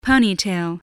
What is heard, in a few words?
"ponytail", English